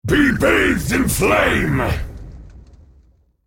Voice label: male elemental trigger lavaburst

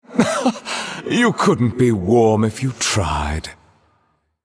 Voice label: male greetings; eternal one mirror

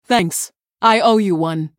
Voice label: female human thanks

